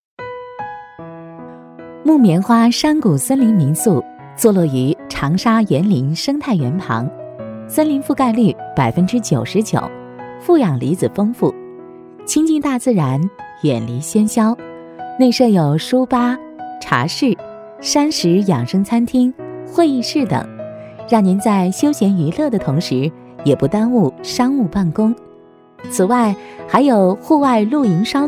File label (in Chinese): 女, 餐厅介绍; 木棉花山谷